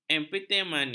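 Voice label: "amphetamina", Malay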